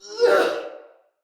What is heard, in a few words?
Attack, Male, en; Sinecure Banneret